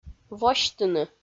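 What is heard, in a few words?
"восьтыны", Komi, female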